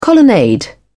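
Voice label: "colonnade", British English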